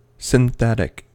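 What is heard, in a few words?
"synthetic", American English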